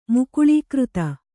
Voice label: "ಮುಕುಳೀಕೃತ", Kannada

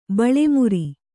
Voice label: "ಬಳೆಮುರಿ", Kannada

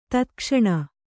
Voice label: "ತತ್ಕ್ಷಣ", Kannada

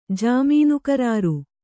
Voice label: "ಜಾಮೀನುಕರಾರು", Kannada

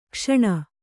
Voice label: "ಘೋಸನೆ", kannada

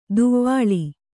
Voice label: "ದವಳ", Kannada

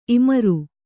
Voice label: "ಇಮುರು", Kannada

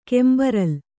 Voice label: "ಕೆಂಬರಲ್" Kannada; kembaral; noun; a red flower.

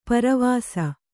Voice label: "ಪೆರ್ವಸ", Kannada

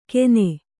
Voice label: "ಕೆನೆ", kannada

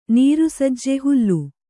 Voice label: "ನೀರುಸಜ್ಜೆ ಹುಲ್ಲು", Kannada

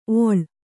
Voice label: "ಓಳಿ", Kannada

